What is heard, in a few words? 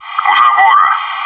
"fence", bot; maxpack